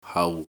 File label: "hau6", cantonese